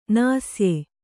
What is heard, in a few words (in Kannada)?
"ನಾಸ್ಯೆ", Kannada